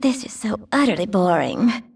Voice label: Female; baldurs1